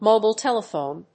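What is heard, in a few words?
"mobile telephone", English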